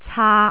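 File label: "caak3", Jyutping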